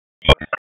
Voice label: Police Downtown District Tac 1, p25; Raleigh; Wake